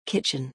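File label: "kitchen", English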